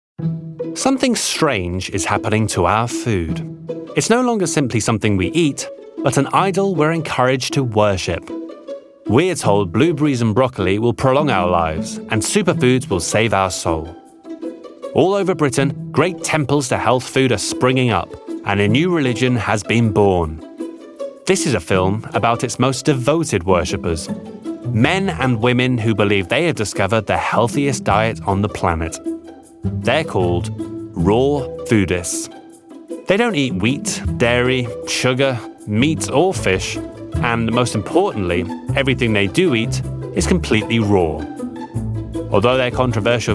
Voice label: documentary; RAW FOODISTS NARRATIVE